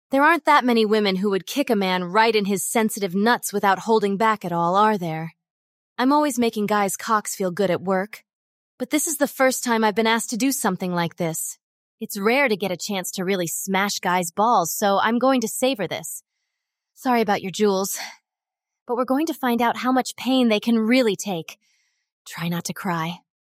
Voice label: synthesized audio